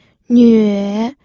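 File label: "nüe", third tone, pinyin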